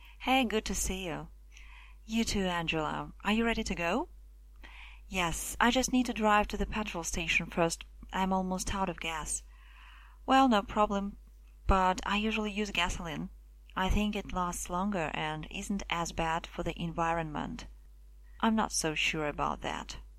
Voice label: dialog gas station